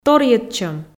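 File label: "торйӧдчӧм", Komi, female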